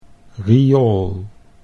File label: "riool", Dutch